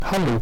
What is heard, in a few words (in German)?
hallo verzerrt; elektronische schaltungstechnik